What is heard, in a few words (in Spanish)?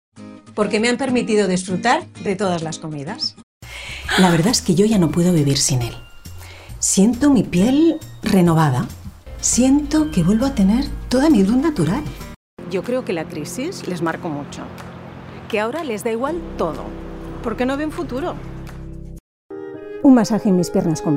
publicidad castellano